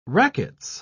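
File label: "racquets", British English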